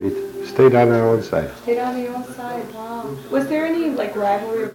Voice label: Personal history with male, Chisholm Minnesota, american english dialect recordings